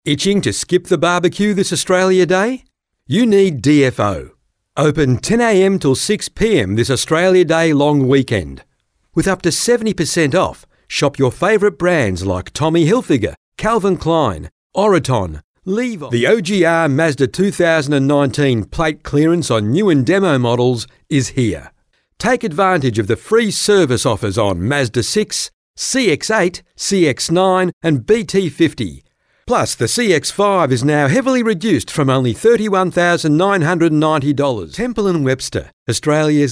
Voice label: Soft Sell